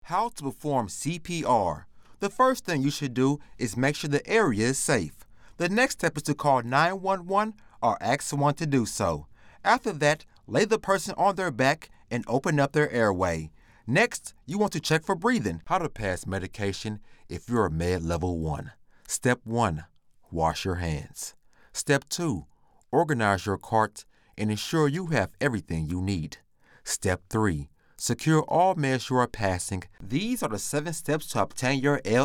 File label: Explainer